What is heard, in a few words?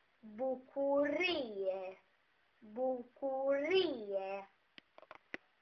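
"joy", Greek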